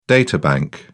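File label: "databank", English, British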